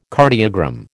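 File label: "cardiogram", American English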